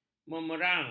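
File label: "memerang", Malay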